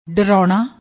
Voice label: "daroona", Punjabi